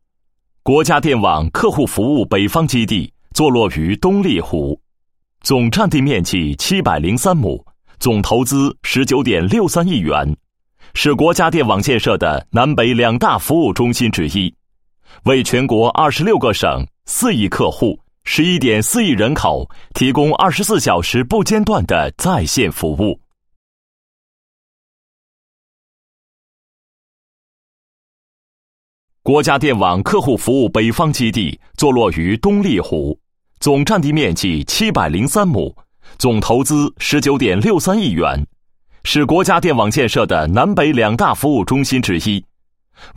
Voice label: 男 解说 项目简介; 国家电网公司客户服务中心

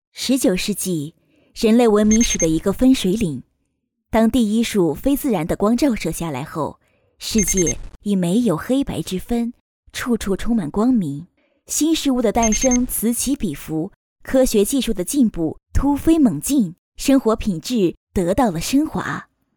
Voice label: 女 稳重记录风格 19世纪文明史

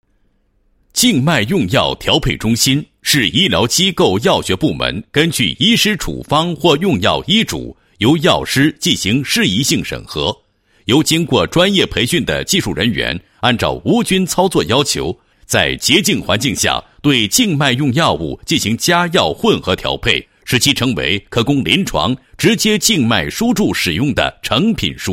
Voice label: 男 医院专题; 静脉用药调配中心

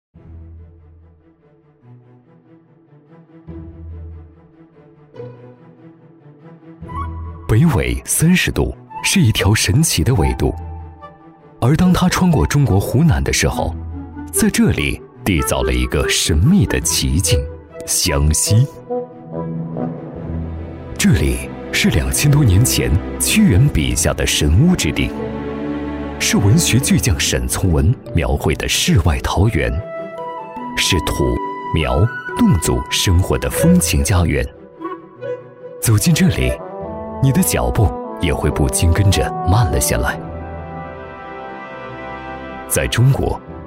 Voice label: 男, 舌尖风; 湖南腊肉

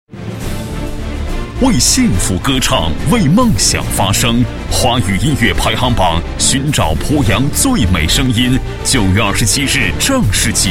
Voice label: 男3-广告, 国语男声配音; 超级广告王

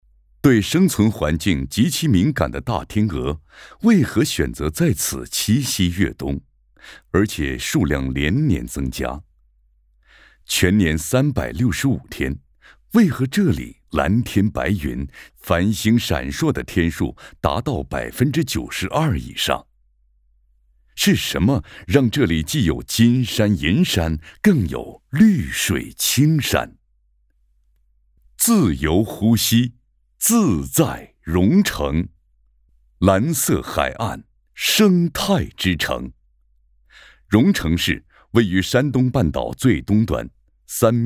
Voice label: 男 第二届中国生态文明奖先进个人申报; 山东省荣成市委书记

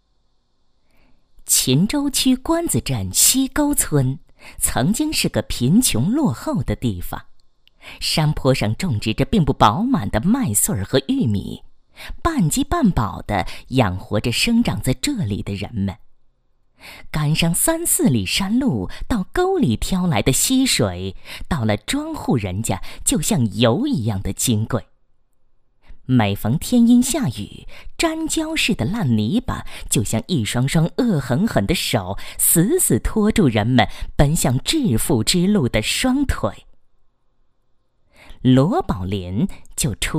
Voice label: 人物 专题片配音, 国语女声配音, 成熟稳重

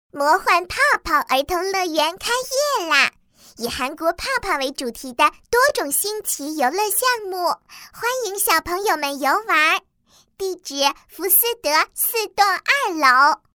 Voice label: 女19 童声女 广告 儿童乐园 欢快; 魔幻泡泡儿童乐园

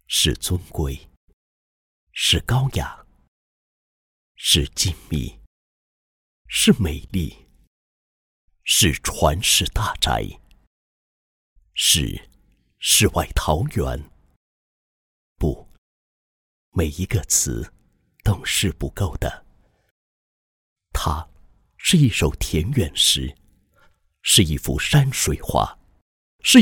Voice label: 男, 宣传片 小区宣传片配音; 兰江小区

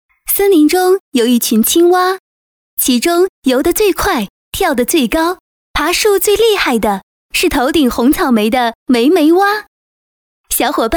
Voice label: 女 故事; 国语女声配音; 寻找彩虹莓的故事

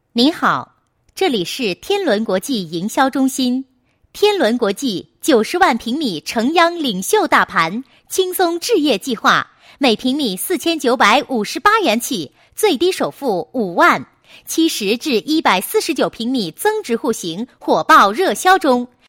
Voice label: 女 彩铃, 国语女声配音; 天伦国际

